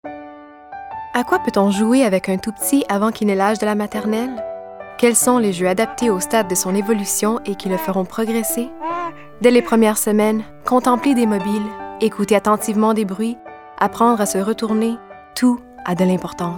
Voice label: narration